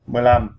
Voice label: fifteen vietnamese